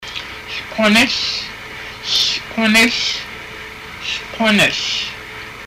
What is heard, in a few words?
"three", Haida language; Hlgúnahl